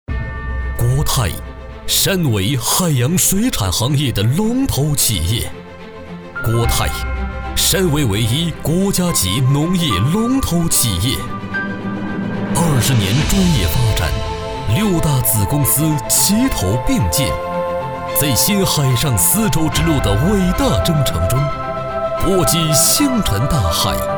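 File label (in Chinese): 国语 男声 专题片 宣传片 大气浑厚磁性 沉稳 娓娓道来; 国泰集团宣传片《筑梦海洋》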